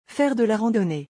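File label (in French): "Faire de la randonnee", French; vocabulaire; le lexique des vacances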